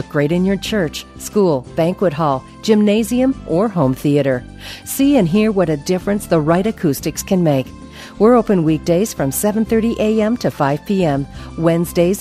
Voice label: tile company, female voices; audio on hold